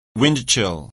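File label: "windchill", English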